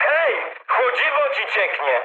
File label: damaged water mood high, speech pol; War Thunder